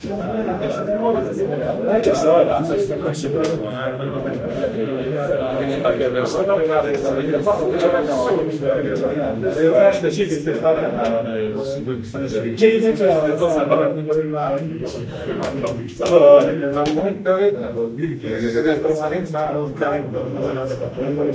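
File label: men murmuring and talking, room; 4 men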